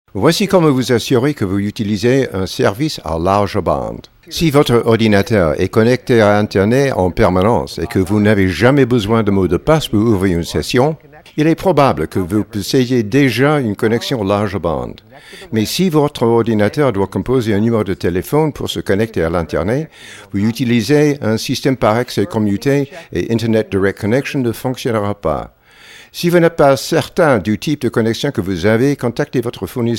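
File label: French male voice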